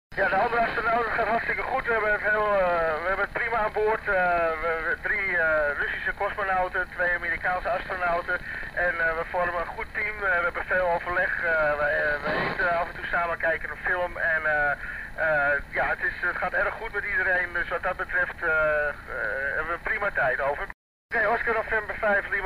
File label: 145.800MHZ ARISS CONTACT; Middenschool de Regenboog Bree Belgium; OR4ISS; Andre Kuipers; PI9ISS